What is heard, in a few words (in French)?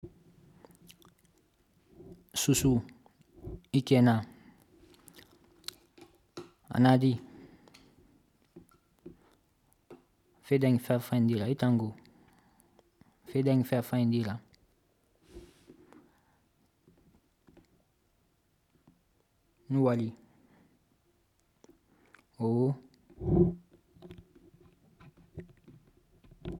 Soussou